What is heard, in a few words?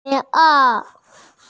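"pi2ao3" Mandarin Chinese, female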